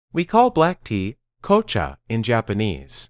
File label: Dictation